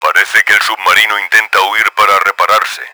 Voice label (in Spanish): sub damaged, Spanish; Battle Engine Aquila; XBOX